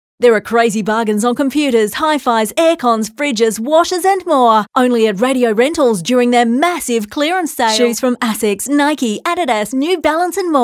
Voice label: Hard Sell